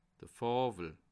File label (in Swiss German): "fawel", Alsace